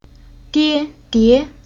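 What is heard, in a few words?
"Die", Chinese syllable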